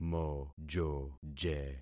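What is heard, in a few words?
"mojoje", concatenated syllables; Affixing experiment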